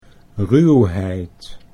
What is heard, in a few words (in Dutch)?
"ruwheid", Dutch